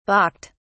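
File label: "balked", British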